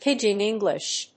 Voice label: "Pidgin English", English